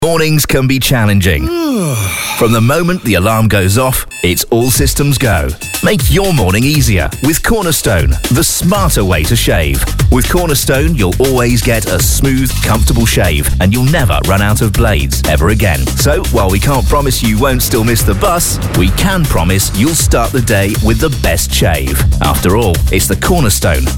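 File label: British English, male, commercial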